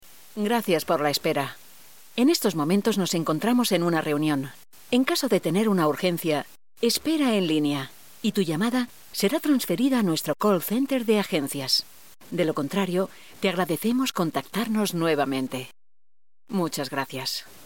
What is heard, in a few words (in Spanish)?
IVR COMPAÑIA AÉREA